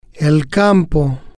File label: "el Campo", spanish